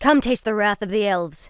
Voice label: pissed, en; Sylvanas